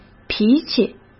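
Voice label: "脾气", Mandarin Chinese; hsk; chinese-flashcards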